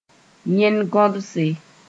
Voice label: "yẽn-gõdse", Mooré

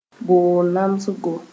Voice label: "boog namsgo", Mooré

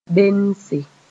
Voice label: "bense", Mooré

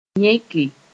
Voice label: "yẽke", Moore

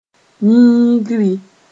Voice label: "yĩigri", Mooré

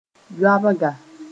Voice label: "yoabga", Mooré

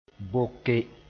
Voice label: "boke", moore; divide into two parts

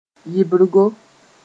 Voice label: "yɩbrgo", Mooré

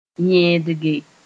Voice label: "yẽgdge", Mooré

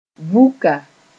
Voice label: "vuka", Mooré; moundtrace

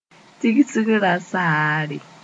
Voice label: "tigsg rasaare", Moore; crutch

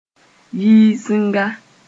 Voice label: "yiisinga", Moore

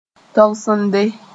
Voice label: "togsende", Mooré

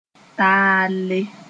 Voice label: "taalle", moore; tacky

